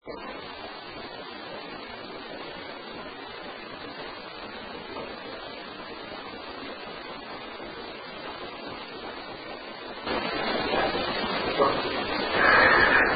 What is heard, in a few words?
Whisper, HEY WITH A LAUGH; Case 021 Zepp Home; Master Bedroom